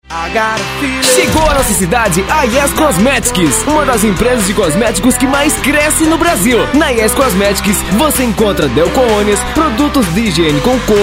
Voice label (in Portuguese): spot; Yes cosmetics